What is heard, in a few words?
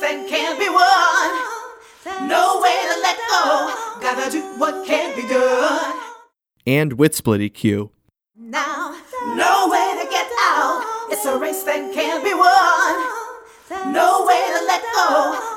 Widen Vocal; SplitEQ